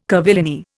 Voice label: "curvilinear", Indian

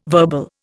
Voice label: "verbal", Indian

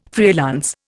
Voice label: "freelance", Indian

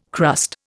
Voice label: "crust", Indian

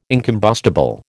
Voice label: "incombustible", American

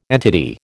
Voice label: "entity", American

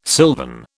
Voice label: "silvern", British

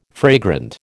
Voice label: "fragrant", American

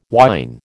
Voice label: "whine", American

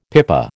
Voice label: "pipa", American

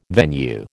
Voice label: "venue", American

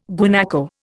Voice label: "guanaco", Indian

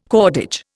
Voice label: "cordage", Indian

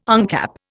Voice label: "uncap", Indian

